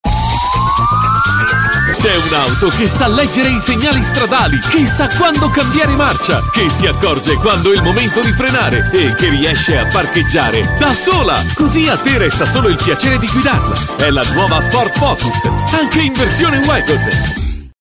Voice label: male italian commercial